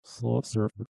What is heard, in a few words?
reverse speech; soul surfer